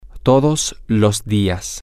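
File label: Spanish, male; everyday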